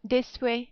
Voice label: "desuet", French